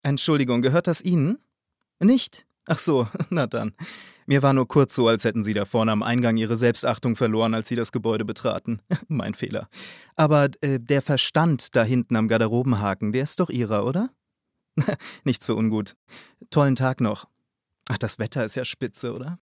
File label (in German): synchron